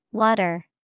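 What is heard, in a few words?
water, English vocabulary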